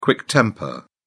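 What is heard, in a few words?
"quick temper", British English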